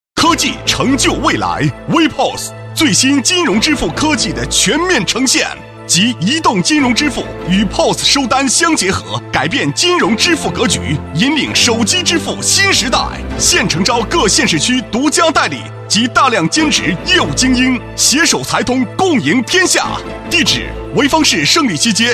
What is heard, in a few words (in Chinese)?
品牌广告 手机支付, 国语男声配音, 男高音